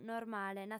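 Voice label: "normale", female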